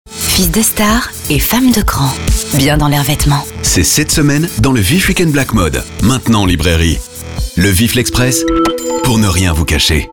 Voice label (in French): pub radio; LeVif WE